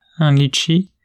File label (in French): "un litchi", French